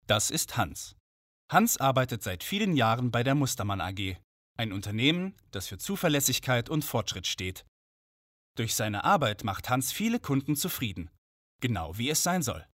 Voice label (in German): Erklärfilm mittelhell freundlich